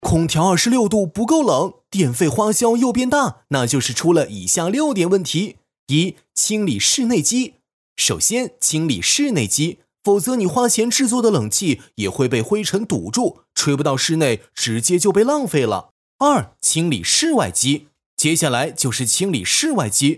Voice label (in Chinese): 男 广告; 空调26℃不够冷